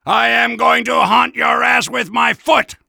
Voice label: Soldier taunts